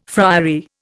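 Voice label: "friary", Indian English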